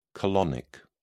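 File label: "colonic", British English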